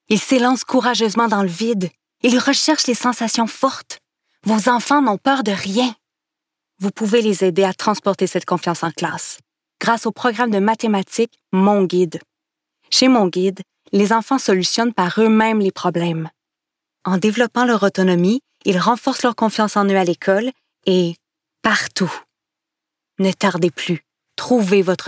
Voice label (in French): annonceur fr qc naturel affirmee determinee explicative narrative; mon guide; adulte medium